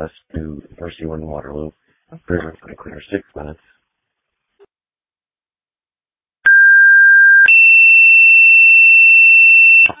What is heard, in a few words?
Ambulance, dispatch; Sumner